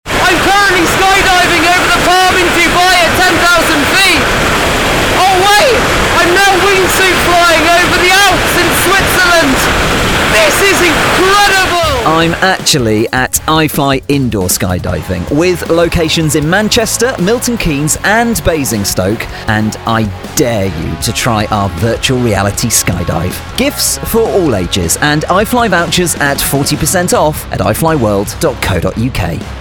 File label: christmas radio ad